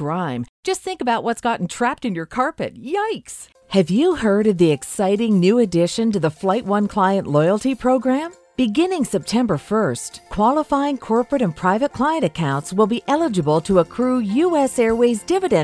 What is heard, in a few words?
Explainer Videos